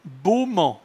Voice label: "boment", patois jura